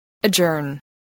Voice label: "adjourn", English voice

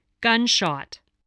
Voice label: "gunshot", spelling

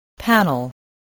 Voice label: "panel", English voice

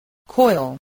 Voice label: "coil", spelling

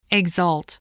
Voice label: "exult", voice

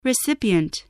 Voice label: "recipient", voice, english

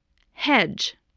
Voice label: "hedge", English, spelling

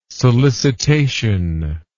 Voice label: "solicitation", english

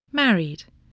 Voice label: "married", spelling voice

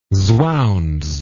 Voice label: "swounds", English word

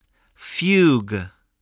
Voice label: "fugue", English voice, spelling

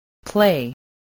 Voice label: "play", English

